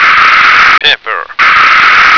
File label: "pepper", American